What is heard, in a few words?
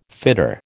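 "fitter", American English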